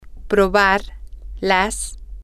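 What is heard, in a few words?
to taste try it feminine plural, Spanish, female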